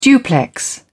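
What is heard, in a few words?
"duplex", British English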